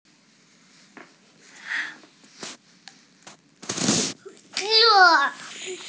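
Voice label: "zuo4", Mandarin Chinese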